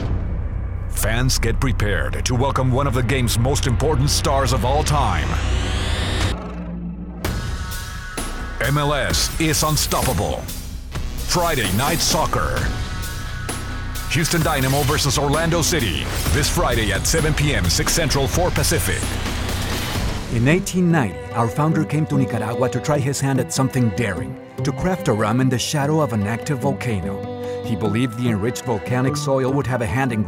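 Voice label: male, Latin American Spanish accented English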